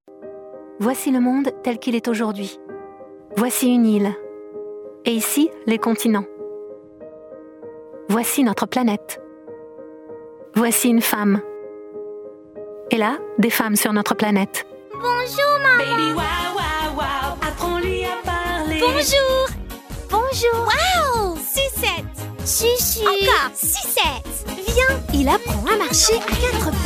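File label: female French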